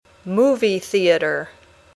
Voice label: "movie theater", English